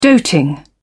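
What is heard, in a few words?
"doting", British English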